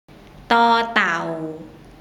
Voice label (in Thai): "ต เต่า", Thai